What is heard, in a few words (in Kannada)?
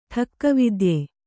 "ಠಕ್ಕವಿದ್ಯೆ", kannada